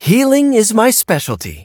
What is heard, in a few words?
loading, en; cookie run